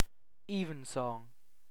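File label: "evensong", British English; pronunciations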